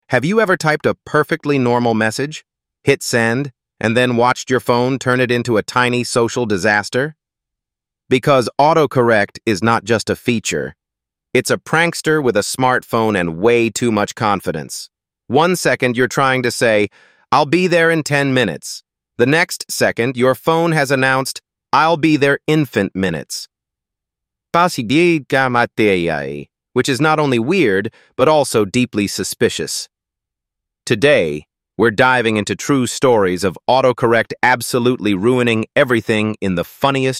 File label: voiceover